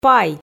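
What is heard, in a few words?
"пай", Komi, female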